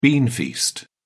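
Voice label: "beanfeast", English, British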